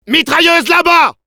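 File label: sentry ahead, French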